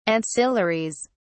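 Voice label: "ancillaries", English, British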